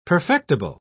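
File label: "perfectible", British English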